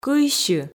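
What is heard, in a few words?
"кыйсьы", Komi, female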